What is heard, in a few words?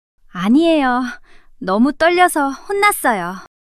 shy